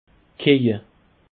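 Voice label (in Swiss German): "keje", Bas Rhin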